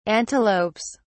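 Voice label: "antelopes", British English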